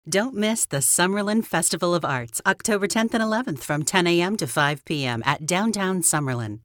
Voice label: Radio Comm; Summerlin